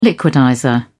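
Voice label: "liquidizer", British English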